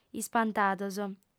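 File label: "ispantados", Sardinian, female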